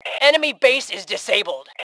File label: base, Fem; voice